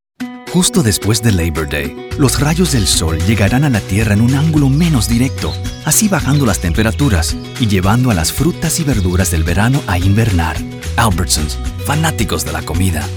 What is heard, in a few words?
Male Commercial Spanish